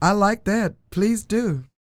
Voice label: DIALOG, FEM SPOKEN; R&B & Dance; ILIO; Vocal Planet

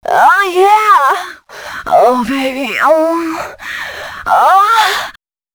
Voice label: female c#133, adlips

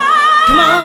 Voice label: AAH COMON, ADLIB WOM, Funky Vocals; USB Soundscan vol.18; AKAI

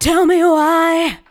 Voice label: TELL ME WHY, FEMALE VOICE; Best Service; Hallelujah

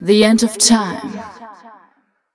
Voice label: fem spo, Vocals

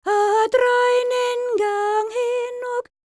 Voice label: WELSH F, World Voices

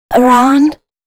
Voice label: female spoken hooks, dance ultra voice

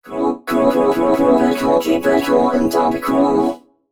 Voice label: female c# 135, short hooks, Dance Voice

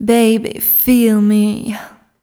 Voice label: fem spo, Vocals

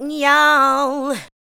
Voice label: ADLIBS 90; Ueberschall; Jam Box

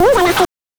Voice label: "MASTERY", VOX; NES DPCM; MIDINES

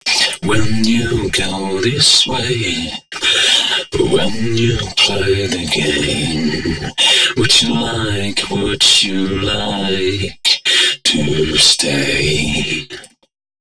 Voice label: male d#130, Dance Ultra Voice hooklines

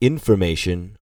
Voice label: bit, Vocal, R&B Dance, hip-hop; TB HIP-HOP

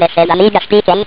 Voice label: amiga speaking

